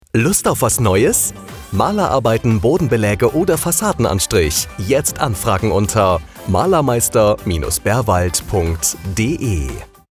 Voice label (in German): Funkspot; Maler und Lackiermeister